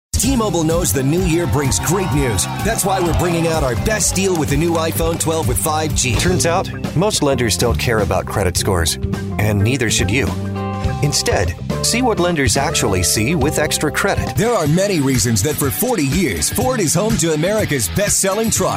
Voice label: Commercial Male, Commercial Voiceover, TV and Radio, Auto Dealer